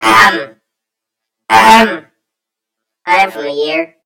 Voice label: story voiceover; yumpod